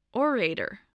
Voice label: "orator", English voice

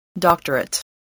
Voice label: "doctorate", spelling

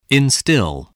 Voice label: "instil", voice spelling

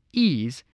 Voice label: "ease", English

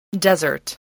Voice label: "desert", English

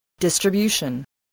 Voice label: "distribution", spelling

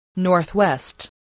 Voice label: "northwest", voice, spelling

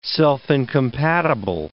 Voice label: "self-incompatible", English

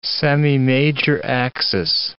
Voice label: "semimajor axis", English

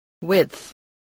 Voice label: "width", voice, english

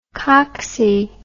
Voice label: "cocksy", English

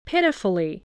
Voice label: "pitifully", English

